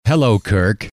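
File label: hello, male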